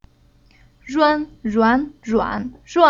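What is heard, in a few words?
"Ruan", Chinese syllable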